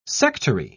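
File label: "sectary", British English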